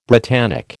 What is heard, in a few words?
"Britannic", American